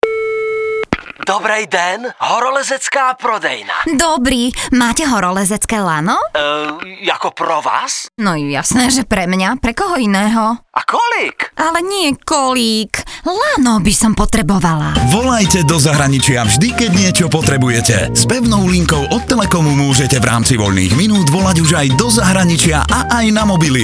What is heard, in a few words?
radio; d1 telekom lano